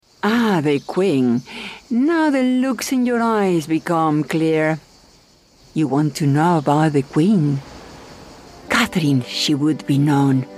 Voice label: spanish voice english

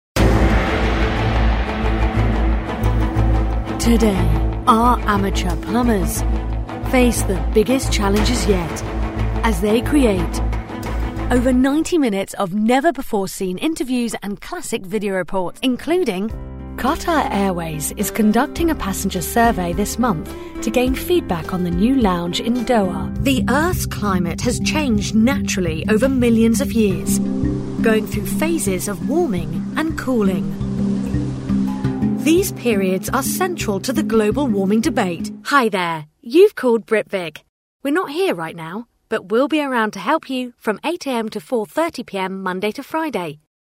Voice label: narration

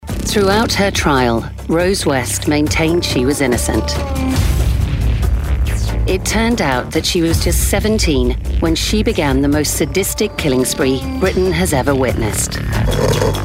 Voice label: documentary narration

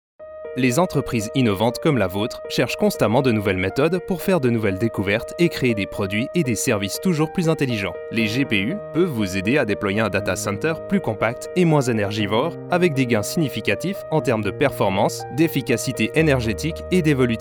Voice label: french male corporate

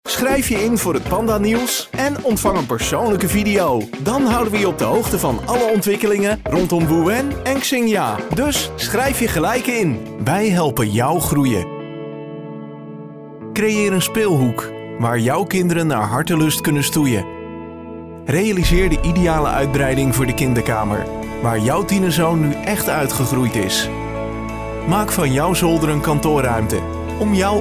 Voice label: dutch male corporate animations

